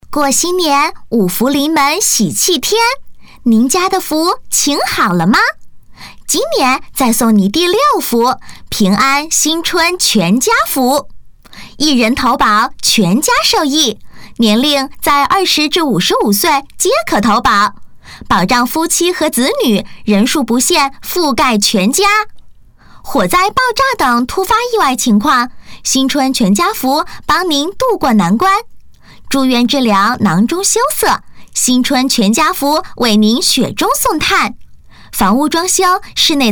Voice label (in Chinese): 女童; 平安全家福